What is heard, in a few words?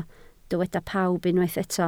"pawb", Welsh